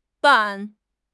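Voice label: "ban4", Mandarin Chinese; HSK3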